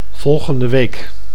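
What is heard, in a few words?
"volgende week", Dutch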